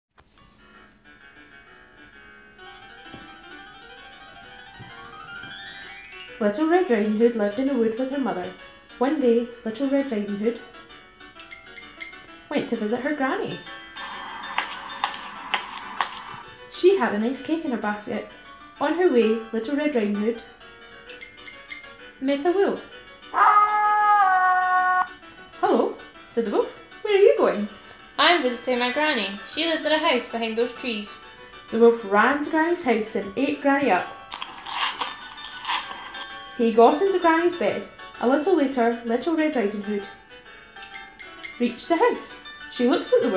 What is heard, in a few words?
Music and Storytelling